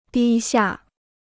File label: "低下", Chinese